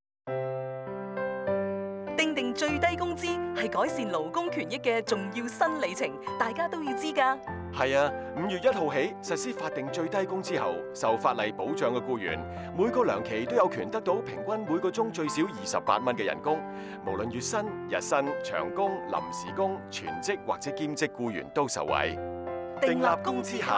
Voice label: Radio General Public cantonese

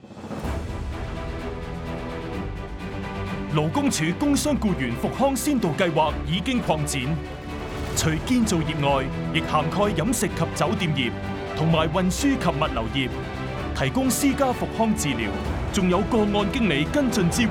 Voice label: Radio Cantonese; 工傷復康，有我幫手！; 粵語; 電視宣傳短片及電台廣播

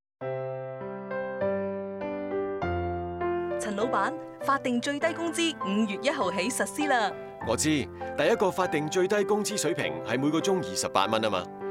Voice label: Radio Employers cantonese

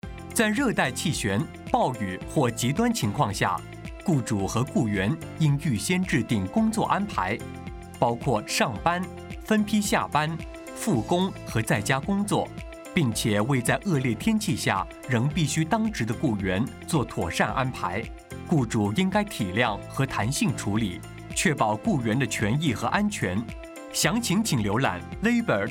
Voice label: Putonhua; 热带气旋、暴雨及「极端情况」下工作安排; 普通话; 电视宣传短片及电台广播

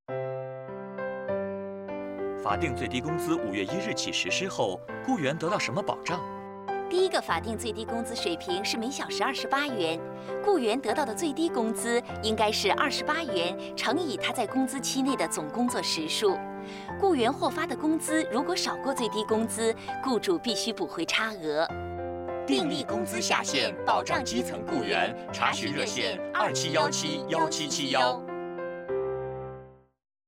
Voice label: Radio Employees putonghua

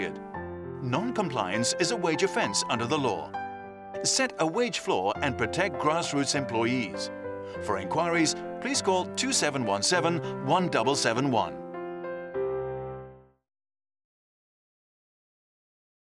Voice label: Radio Employers english

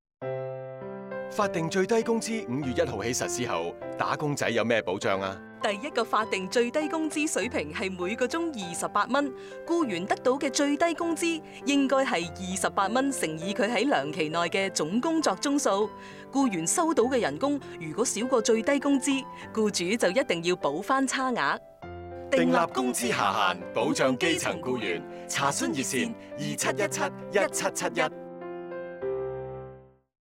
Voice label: Radio Employees cantonese

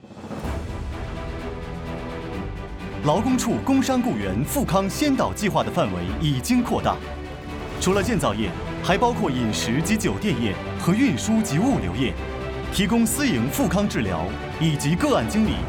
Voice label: Putonghua; 工傷復康，有我幫手！; 普通話; 電視宣傳短片及電台廣播

